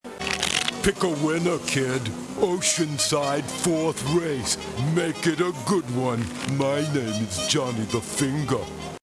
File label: gangster